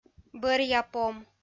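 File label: "бӧръяпом", Komi-Zyrian, female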